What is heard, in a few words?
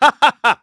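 Vox Happy, Korean; KaselB; kingsraid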